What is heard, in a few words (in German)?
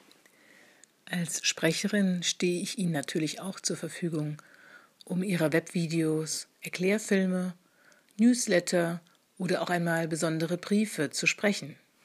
Sprecherin